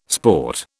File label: "sport", British